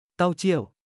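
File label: "เต้าเจี้ยว", Thai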